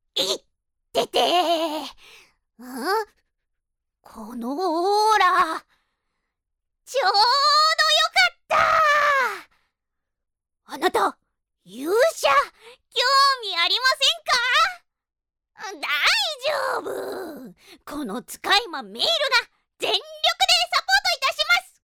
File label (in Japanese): アニメ